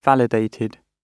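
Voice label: "validated", British English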